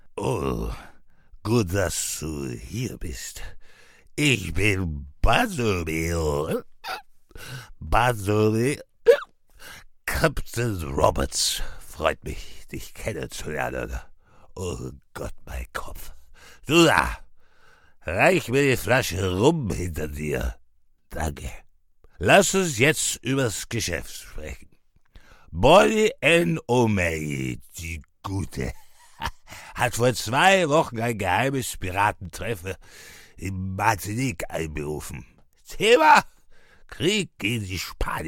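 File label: Austrian German Characters; voice demos; GoLocalise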